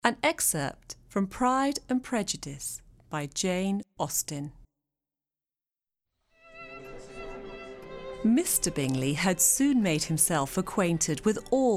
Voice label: Audiobook British Accent